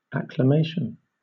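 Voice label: "acclamation", British English; pronunciation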